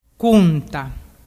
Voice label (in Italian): "cunta", dialettu